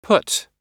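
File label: "put", American English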